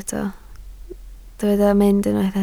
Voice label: "mynd", Welsh

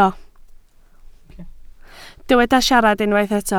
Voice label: "siarad", Welsh

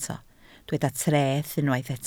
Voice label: "treth", Welsh

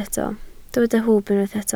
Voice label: "hwb", Welsh

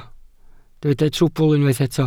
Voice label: "trwbl", Welsh

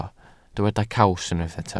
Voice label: "caws", welsh

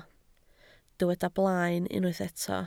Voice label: "blaen", Welsh

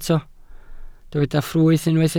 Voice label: "ffrwyth", Welsh

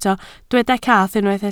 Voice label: "cath", Welsh

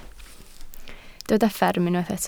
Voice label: "fferm", Welsh

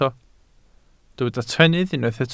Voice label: "trennydd", Welsh

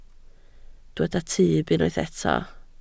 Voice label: "tyb", Welsh

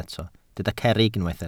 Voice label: "cerrig", Welsh

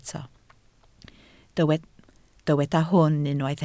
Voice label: "hwn", Welsh

